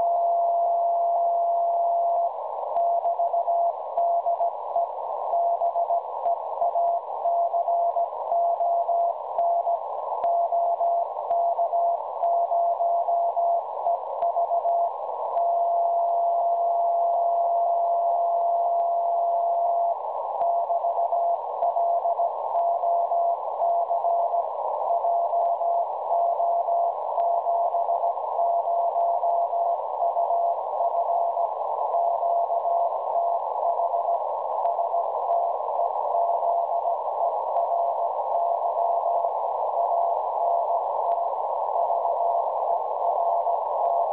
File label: websdr recording 1854.0 kHz